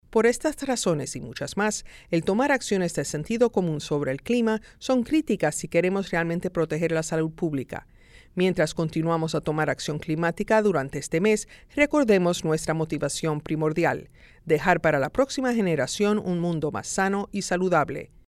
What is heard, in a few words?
Asthma Spanish